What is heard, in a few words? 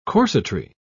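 "corsetry", British English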